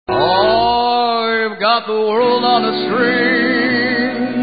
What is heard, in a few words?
Impersonator Impressionist Comedy; Frank Sinatra; Corporate Entertainment